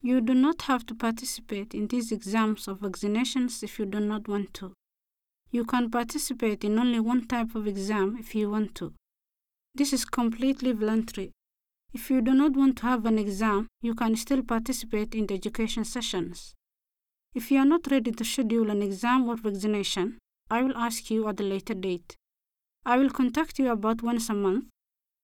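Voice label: Somali, accented English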